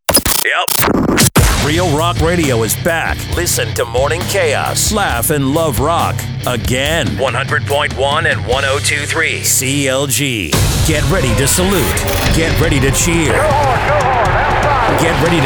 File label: imaging VO